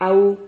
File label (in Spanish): "ahu", Silabas